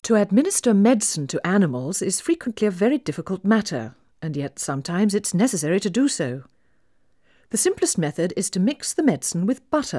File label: Female speech English Mono output, 4bit